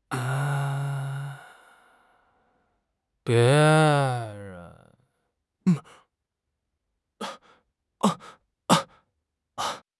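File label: "bie2 ren5", Mandarin Chinese; HSK3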